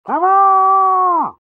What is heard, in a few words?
pyro battlecry; svencoop addon; tfc; player